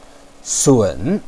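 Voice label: "sun3", Chinese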